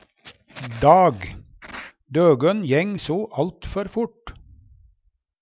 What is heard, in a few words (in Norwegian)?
"dag", Numedalsmål; Substantiv hankjønn